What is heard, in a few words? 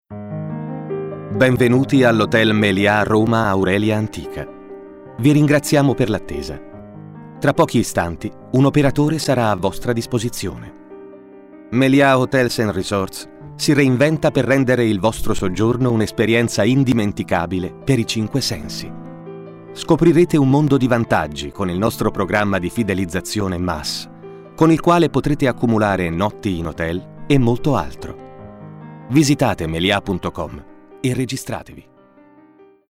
male italian comercial; ideasonora